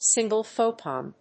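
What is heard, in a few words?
"Single-Photon", English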